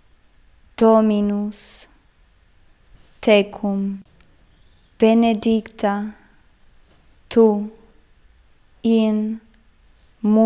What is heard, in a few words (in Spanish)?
ave maria latin pausado; ave maria idiomas